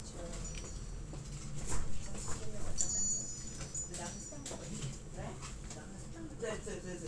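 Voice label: "pick", spoken word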